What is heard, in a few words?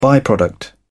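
"by product", English, British English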